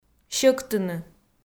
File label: "сьӧктыны", Komi